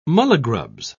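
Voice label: "mulligrubs", British English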